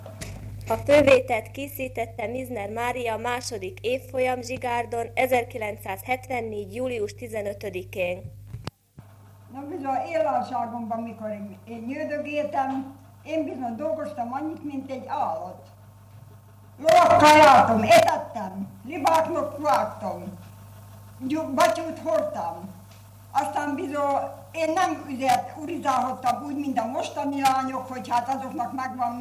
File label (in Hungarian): nyelvjaras gyujtesek